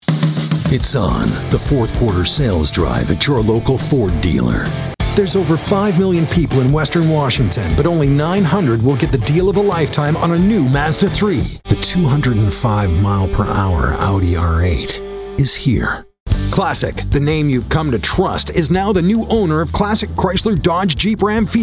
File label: english canadian automotive, canadian english voice actors